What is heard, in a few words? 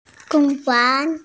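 "gong yuan" Chinese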